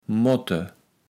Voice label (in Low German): "motte", kaevels platt